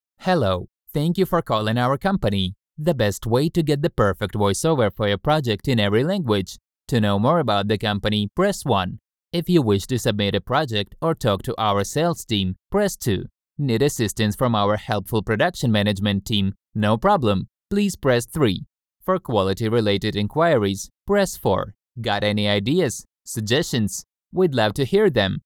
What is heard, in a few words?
ivr in english